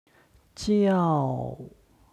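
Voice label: "jiao", Chinese; 叫; 呼ぶ; HSK Level One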